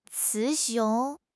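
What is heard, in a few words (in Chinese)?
"雌雄", Chinese; HSK6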